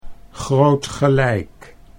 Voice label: "groot gelijk", Dutch